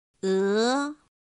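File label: "é", Chinese; pinyin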